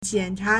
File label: "jian3 cha2", Chinese